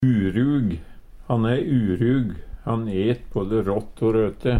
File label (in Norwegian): urug, Numedalsmål; Adjektiv